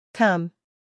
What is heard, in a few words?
"come", English